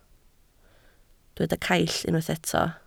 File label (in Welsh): "caill", Welsh